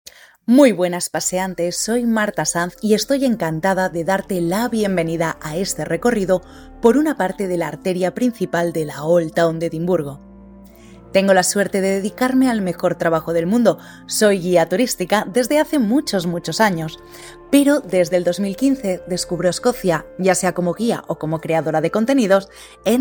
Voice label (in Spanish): Intro con musica fondo